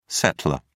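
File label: "settlor", British English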